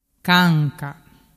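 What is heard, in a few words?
"canca", Vernazzese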